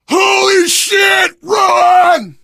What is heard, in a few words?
emphatic run, survivor voice; source engine; left 4 dead 2